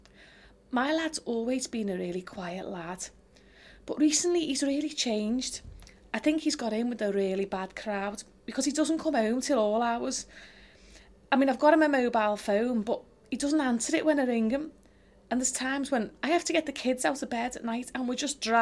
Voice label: worried mum fears her son is getting involved with the wrong crowd